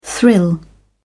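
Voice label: "thrill", British English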